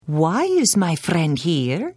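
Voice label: Hello, Khajiit female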